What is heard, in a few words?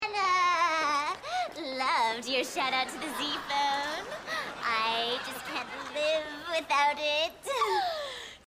annoying voice